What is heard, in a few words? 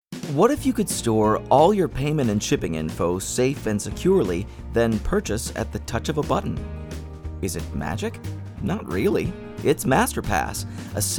Commercial With Music